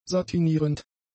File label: "satinierend", german; adjectives